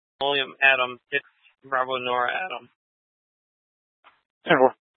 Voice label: Scottsdale Police, Simulcast

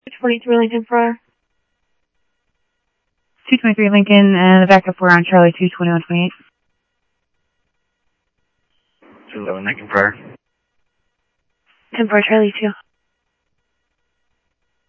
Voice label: Phoenix PD, scanner audio

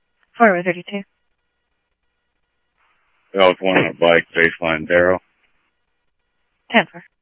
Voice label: Tempe Police, scanner audio

